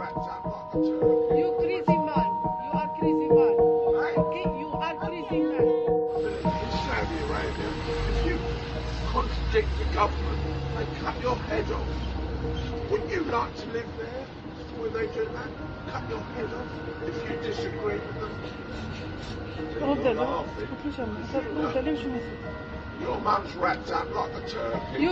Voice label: British man filmed abusing Muslim girl in Islamophobic rant; Middle East Eye; 'Muslims don't belong here'